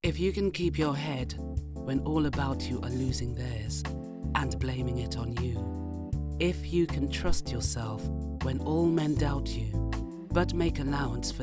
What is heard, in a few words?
Poem Narration; IF; Rudyard Kipling